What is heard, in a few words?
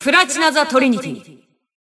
System Voice; BBCP; Bullet